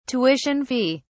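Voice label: "tuition fee", British English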